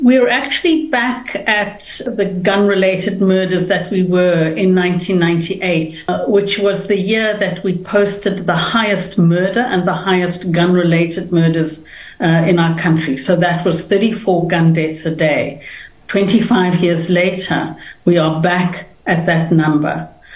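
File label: English; Guns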